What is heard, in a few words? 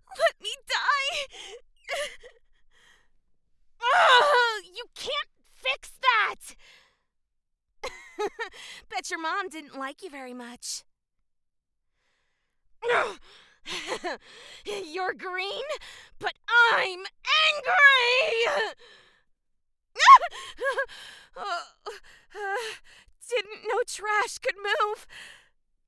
Recruit Female